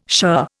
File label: "shirr", Indian